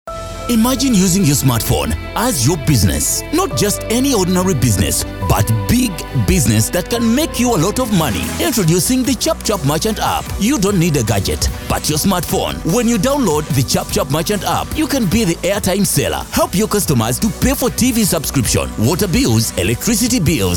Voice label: APP Ad; Chap Chap